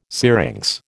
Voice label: "syrinx", American